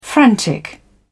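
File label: "frantic", English, British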